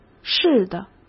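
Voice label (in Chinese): "似的", Mandarin Chinese; chinese flashcards; hsk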